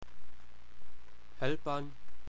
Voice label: "helpan", englisc